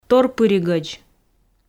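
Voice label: "торпыригӧдз", Komi, female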